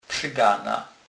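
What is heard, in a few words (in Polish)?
"przygana", Kurpie dialect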